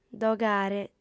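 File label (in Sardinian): "dogare", female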